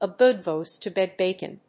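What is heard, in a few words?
"a good voice to beg bacon", English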